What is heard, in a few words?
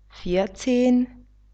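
"vierzehn", German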